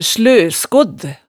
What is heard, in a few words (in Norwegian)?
"sløskodd", hemsedalsmaalet, tale